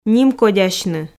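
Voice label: "нимкодясьны", Komi, female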